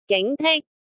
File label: "ging2 tik1", cantonese